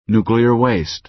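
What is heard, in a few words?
"nuclear waste", American English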